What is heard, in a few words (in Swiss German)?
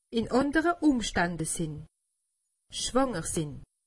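"schwanger", Alsatian; medecine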